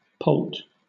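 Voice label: "poult", British English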